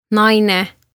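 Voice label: "Naine" Estonian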